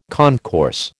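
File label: "concourse", American English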